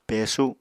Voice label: "pesu", Apatani